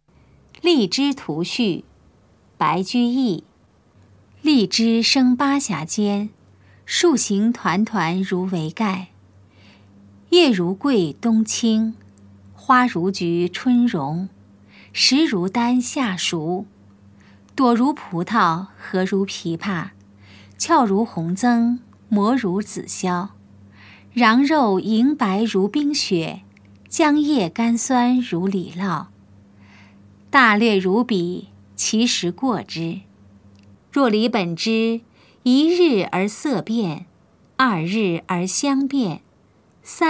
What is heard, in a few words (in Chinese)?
putonghua; 荔枝圖序; 普通話